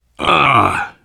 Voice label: getting revived, voice, biker; Left 4 Dead 2; survivor